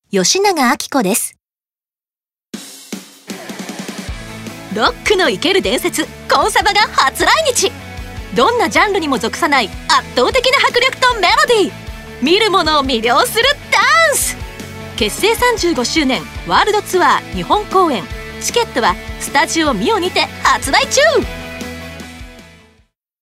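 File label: commercial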